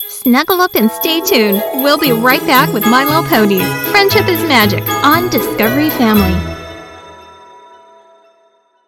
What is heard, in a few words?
Commercial Bumper